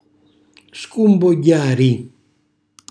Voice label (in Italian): "scumbogghiari", Calabrese; cucina calabrese